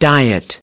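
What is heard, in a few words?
"diet", English word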